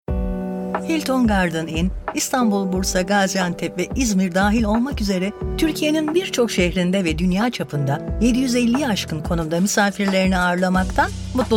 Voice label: commercial, turkish; udemy